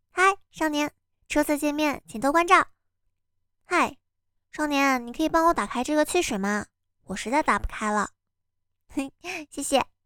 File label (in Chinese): 少女音